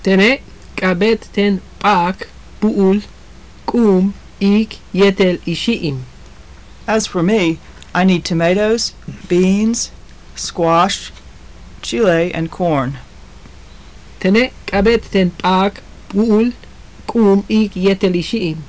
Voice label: conversation, maya; Tene' k'abéet ten p'aak, bu'ul, k'úum, iik, yéetel ixi'im.; As for me, I need tomatoes, beans, squash, chile and corn.; JUNP'IIT MAYA